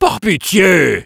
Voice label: negative vocalization fr, Spy

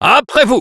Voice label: battle cry

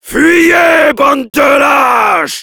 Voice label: battle cry

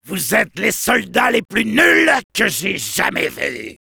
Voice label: Soldier jeers, fr

